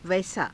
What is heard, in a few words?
"vaisaa", Hindi